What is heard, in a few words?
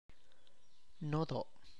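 first, Tlacochahuaya Zapotec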